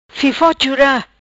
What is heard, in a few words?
"Fifo Chura", Macanese